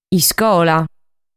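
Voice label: "Iscola", Sardinian word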